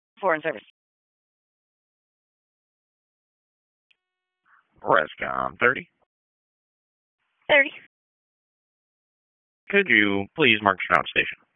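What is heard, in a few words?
EMS DISP, radio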